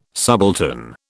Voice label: "subaltern", British